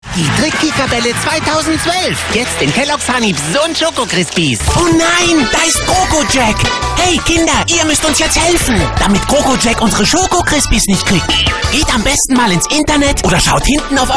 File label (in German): Trickfilm Trickstimme